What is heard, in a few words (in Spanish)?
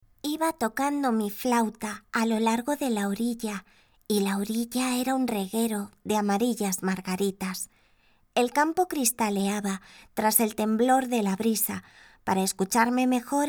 FEMALE castellano niña